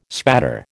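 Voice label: "spatter", American English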